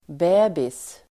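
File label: "bäbis", Swedish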